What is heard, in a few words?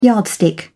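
"yardstick", British English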